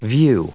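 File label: "view", US English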